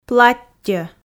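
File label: "платтьо", Komi, female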